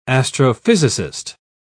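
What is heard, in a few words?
"astrophysicist", British English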